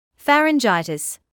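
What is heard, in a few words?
"pharyngitis", British English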